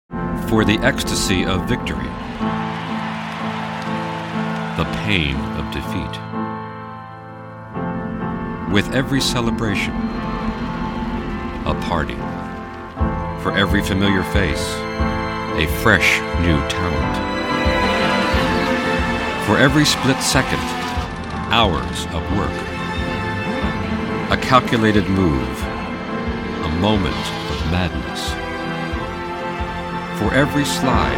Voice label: Narration